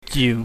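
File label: "jiu", Cantonese, mid tone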